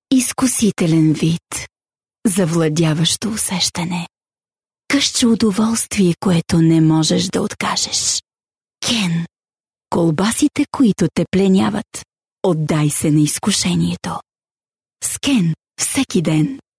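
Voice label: Bulgarian, female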